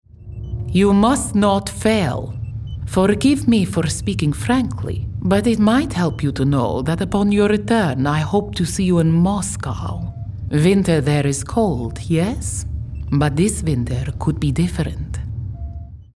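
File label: Irish female red alert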